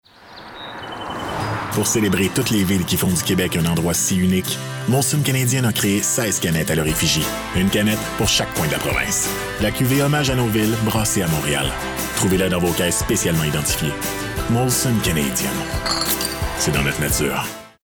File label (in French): pub, voix hommes; moslon canadian